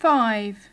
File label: "five", English